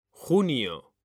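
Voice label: "junio", Spanish, male; mekanta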